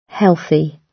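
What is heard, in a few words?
"healthy", British English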